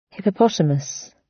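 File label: "hippopotamus", British; Flora and fauna, agriculture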